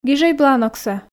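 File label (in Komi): "гижӧй бланоксӧ", Komi, female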